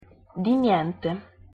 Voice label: "di niente", it

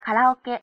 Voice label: "karaoke", ja

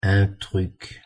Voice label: "un truc", fr

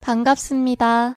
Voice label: "bangapseumnida" pronunciation

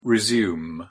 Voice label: "resume" verb

